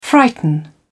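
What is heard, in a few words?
"frighten", British English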